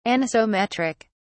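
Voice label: "anisometric", British English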